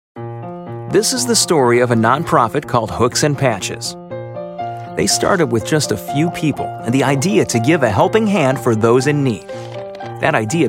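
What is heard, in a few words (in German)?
Erklarvideo Jugendfrei, English, male; NATIVE PROFISPRECHER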